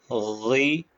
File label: short voyels arabic alphabet